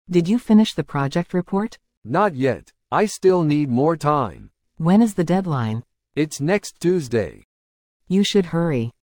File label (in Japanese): 会話 ノーマル